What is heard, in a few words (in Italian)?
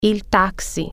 "taxi", Italian